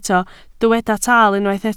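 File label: "taal" Welsh, spoken word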